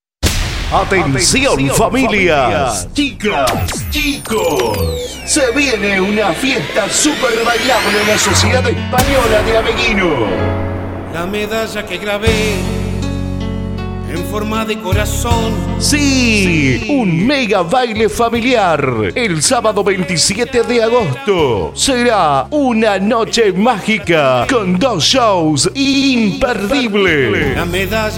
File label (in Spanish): spot publicitario; bailazo ameghino